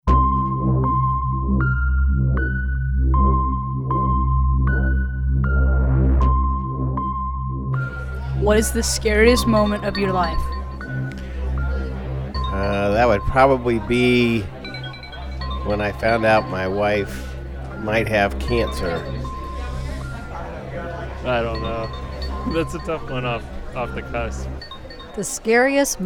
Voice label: Scary